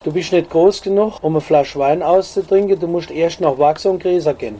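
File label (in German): Dialekte Saarland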